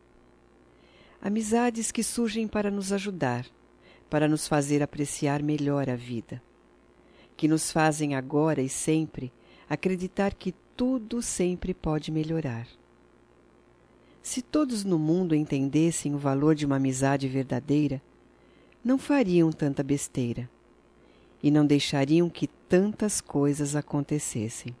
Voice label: DIA DO AMIGO (ANJO) F; Datas Mistas; Telemensagens 2017

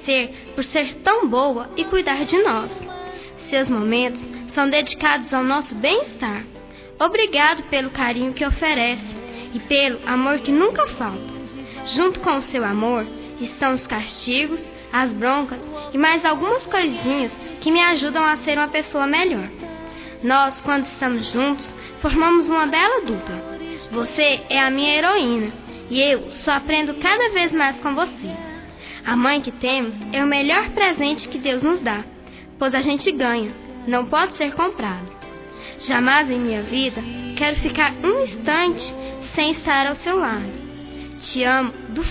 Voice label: Dia Das Mães Para Mãe Feminino; Telemensagens 2017

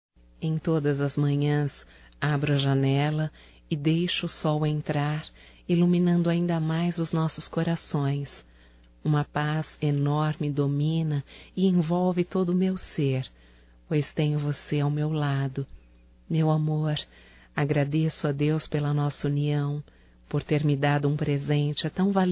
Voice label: ROMANTICA PARA CASAIS(F), Românticas fem

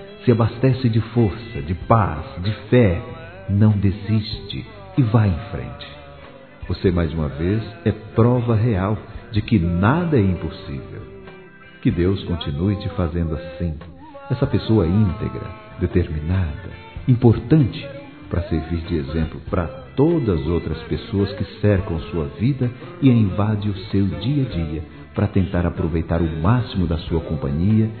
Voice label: Parabéns pelo sonho realizado, Telemensagens; Parabenização

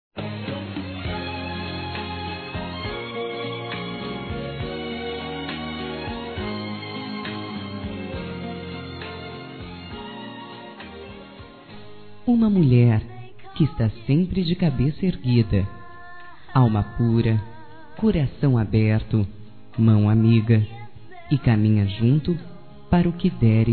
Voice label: MÃE F, Telemensagens; Telemensagens 2017

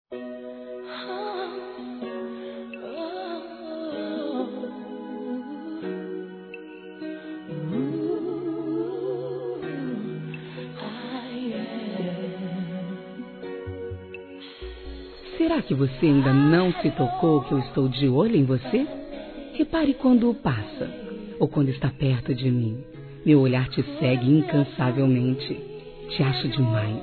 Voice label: Romantica Paquera Atrevida Feminino; Telemensagens; Românticas

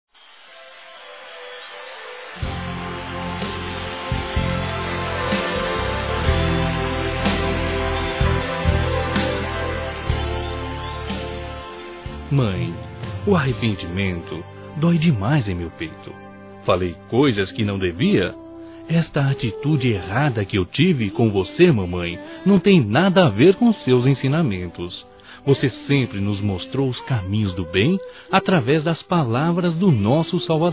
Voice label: Desculpas Masculino, Telemensagens Dia das Mães; Telemensagens 2017

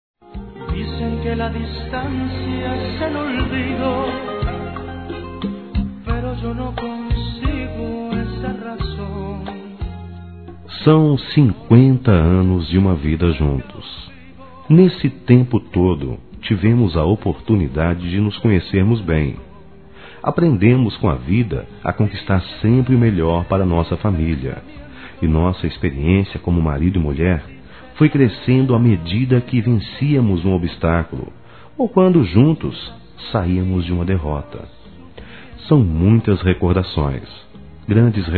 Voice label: Bodas de Ouro Masculino, Telemensagens

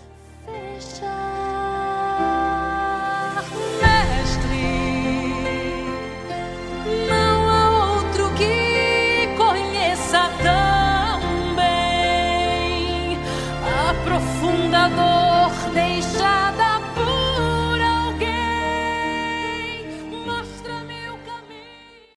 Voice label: Casamento Amiga Evangelica Masculino; Temas Evangelicos

